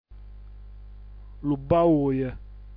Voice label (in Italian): "lubauj", dialetto giulianova